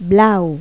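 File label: "azul", Spanish; Pipo-Aprende musica con Pipo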